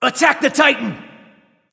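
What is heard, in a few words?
vox attack male; smite; loki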